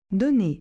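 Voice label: "donner", French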